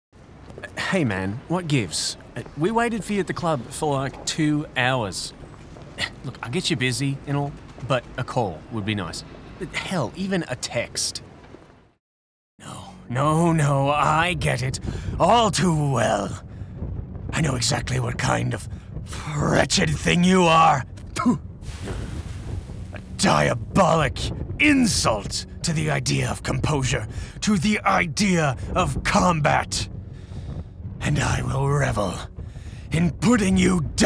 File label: Character